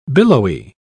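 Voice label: "billowy", English, uk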